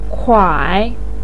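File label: "kuai" third tone, chinese, voice pinyin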